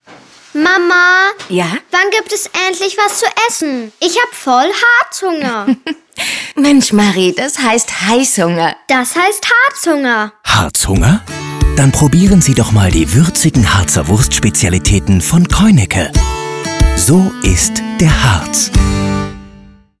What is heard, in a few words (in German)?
Funkspot; Keunecke; Harzhunger; bunch sound; Berlin; Funkspotproduktion